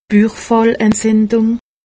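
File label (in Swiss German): "buchfallentzindung", Alsatian; medecine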